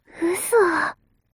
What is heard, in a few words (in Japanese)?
mob 女子 台詞